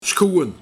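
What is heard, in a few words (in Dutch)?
"Skoeen", dialect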